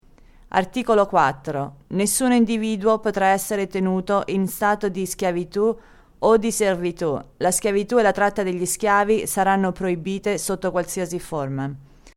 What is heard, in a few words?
Italian